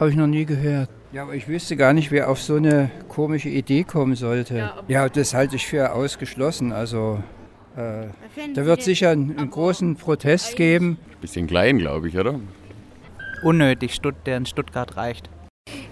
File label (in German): Umfrage Kids Stammtisch, Kinder mit Politkern im Gespräch; Unser Huhn; Ferienradio; Kinder & Jugendradio